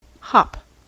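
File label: "hop", US English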